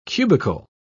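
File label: "cubical", British English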